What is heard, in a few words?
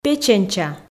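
"печенча", Komi, female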